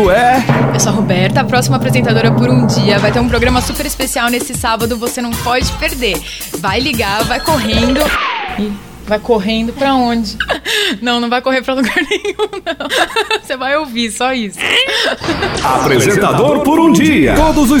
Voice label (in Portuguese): chamada Atual Fm, locucao